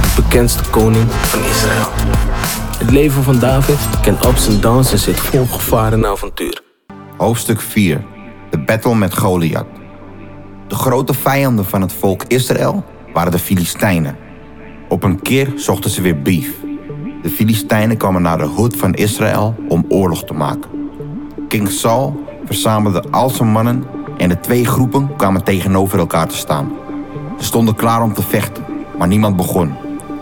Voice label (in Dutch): Straattaal Straatbijbel; De battle met Goliat; Rivelino